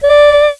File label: Solfege, Claire's Voice; Opcode Systems